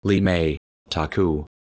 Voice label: Synthetic Human Speech